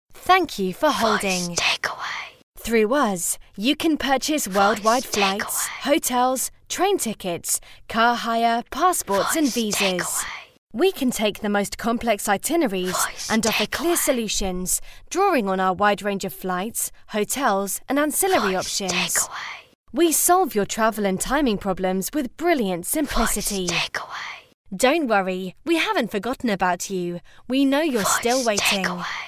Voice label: Travel Agents Fresh Female

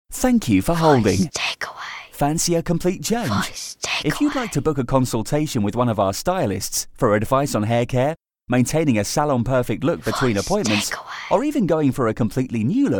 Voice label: Fresh Male; Hairdressers

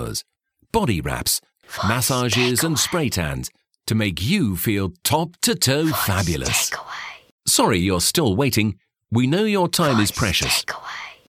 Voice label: Beauty Salons Rich Male

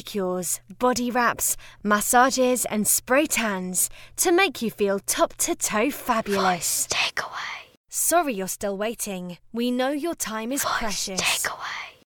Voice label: Beauty Salons Fresh Female

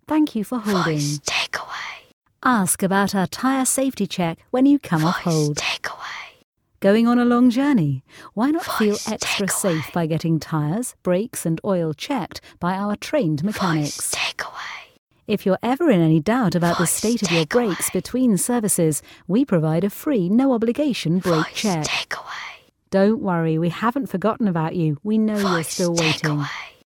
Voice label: Car Showrooms Rich Female

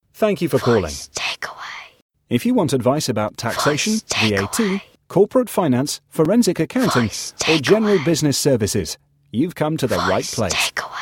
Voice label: Accountants Smooth Male

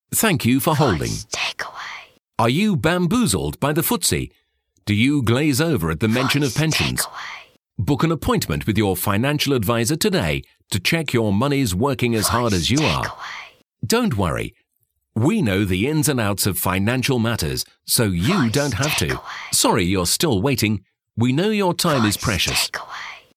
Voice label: Financial Advisers Rich Male

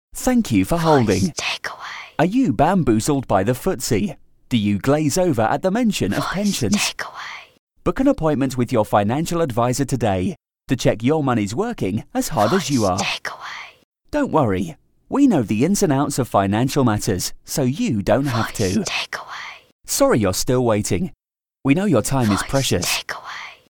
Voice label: Financial Advisers Fresh Male

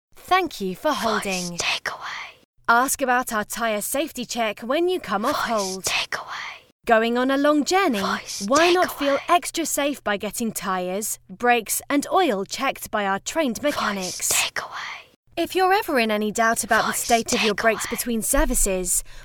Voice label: Car Showrooms Fresh Female

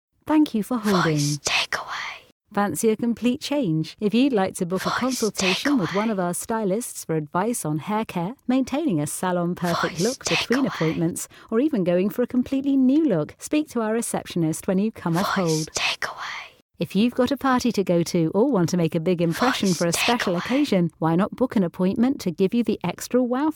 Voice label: Hairdressers Rich Female

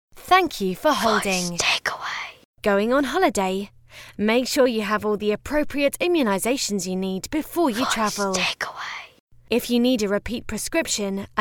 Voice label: Doctors Fresh Female

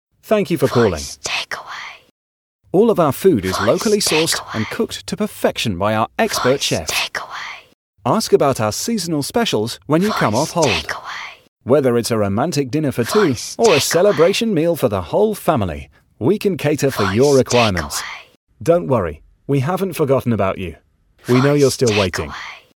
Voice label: Restaurants Smooth Male

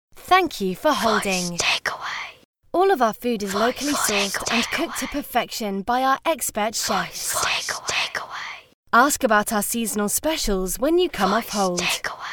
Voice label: Restaurants Fresh Female

